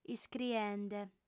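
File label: "iscriende", Sardinian, female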